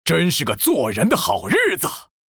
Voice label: villager, zh

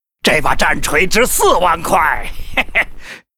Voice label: Gryphon rider pissed

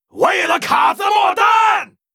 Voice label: Mortar team warcry

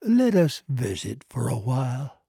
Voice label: trader male like greeting

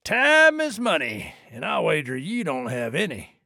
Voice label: trader male dislike greeting

